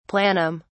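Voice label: "planum", British English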